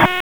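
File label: Police District Tactical, P25; wake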